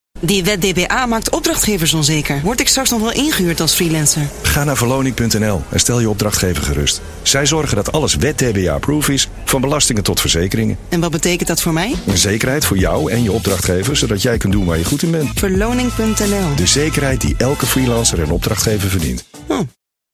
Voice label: NL dialoog; Verloning

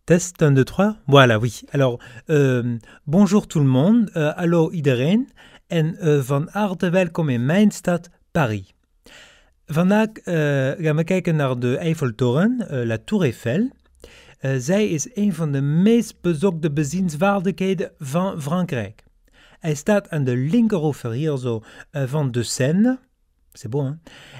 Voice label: Tourguide NL met Frans accent

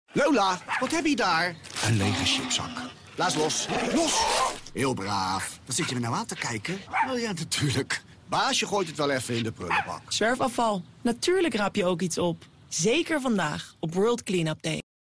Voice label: Rotterdams; Zwerfafval

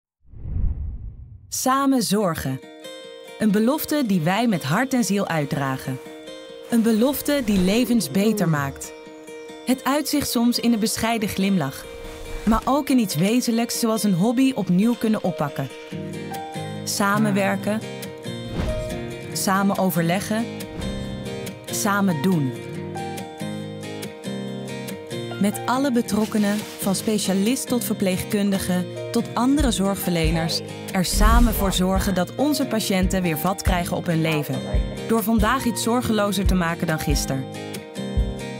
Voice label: AV commentaar; Mathot Medische Speciaalzaken

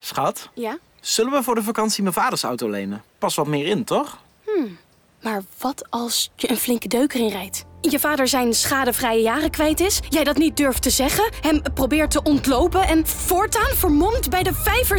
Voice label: RC dialoog; Ohra

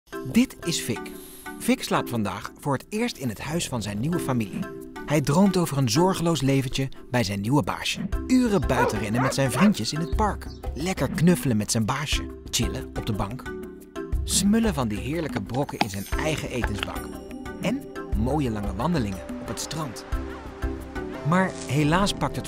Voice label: voorlichtingsfilm; RVO

